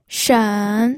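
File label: "shen3", Mandarin Chinese pinyin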